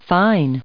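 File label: "fine", US English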